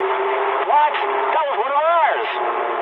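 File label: English player killed ally mood med; speech; War Thunder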